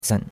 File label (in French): "cen3", chinois; Cours-de-Chinois